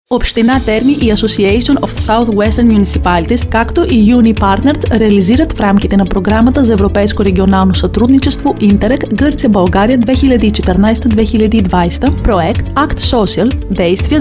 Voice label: spot bulgarian